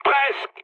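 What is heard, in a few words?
bomb failure, mood low, French; War Thunder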